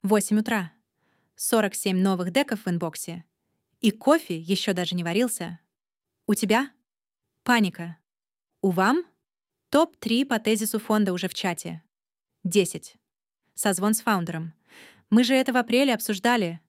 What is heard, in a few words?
voiceover ru